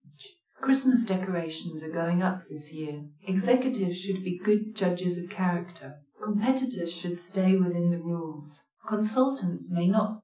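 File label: female speech; SiSEC10; underdetermined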